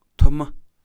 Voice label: "high", Kham